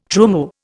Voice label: "trumeau", Indian